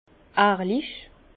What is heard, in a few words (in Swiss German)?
"ehrlich", Bas Rhin; vin; sincère